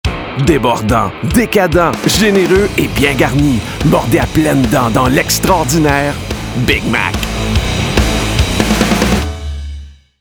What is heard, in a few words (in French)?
Demo voix; Mc Do